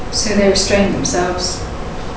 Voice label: Reverb near